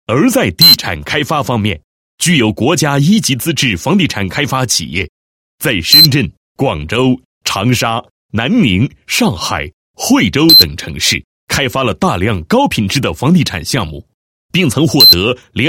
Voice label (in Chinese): 男 房地产广告 品牌宣传, 配音; 房地产开发商